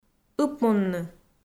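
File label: "ыпмунны", Komi, female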